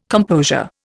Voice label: "composure", Indian